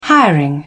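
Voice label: "hiring", British English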